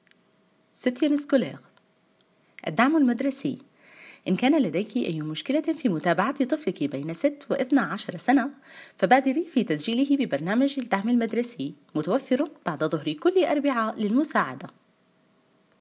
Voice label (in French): arabe